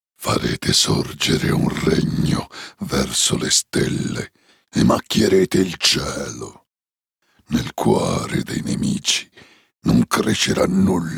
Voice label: ITA character Dark ORACLE